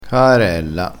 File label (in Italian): "caarella", crespinese